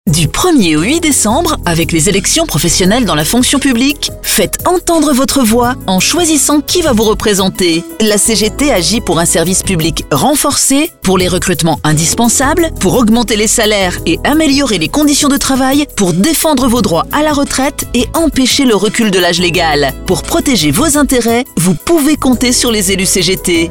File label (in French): Spot CGT Elections professionnelles